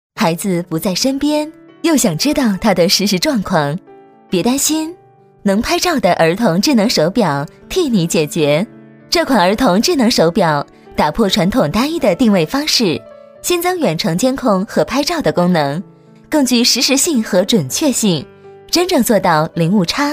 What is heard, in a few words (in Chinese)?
女 专题 儿童智能手表, 国语女声配音